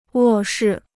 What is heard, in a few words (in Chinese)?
"卧室", Chinese